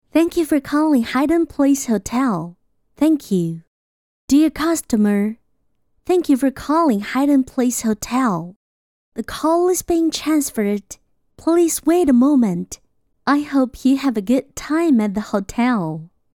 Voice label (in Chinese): 英文